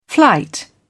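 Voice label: "flight", British English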